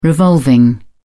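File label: "revolving", British English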